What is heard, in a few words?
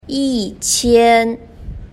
"yiqian", Chinese